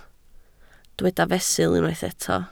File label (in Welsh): "fesul", Welsh